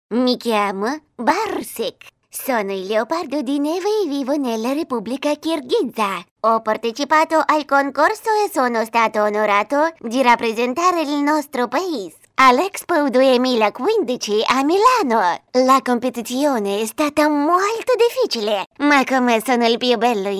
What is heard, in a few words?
russian animation